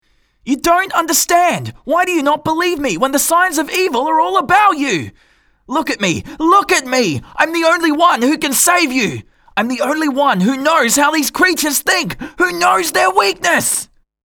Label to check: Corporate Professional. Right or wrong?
Wrong